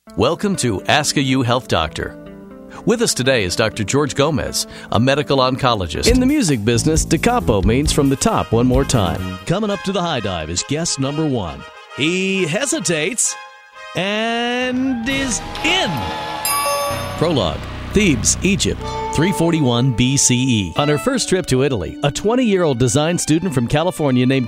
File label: warm narration